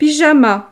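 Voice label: "pyjama", French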